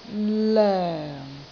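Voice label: "loeng4", Cantonese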